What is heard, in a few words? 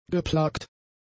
"geplagt", German; adjectives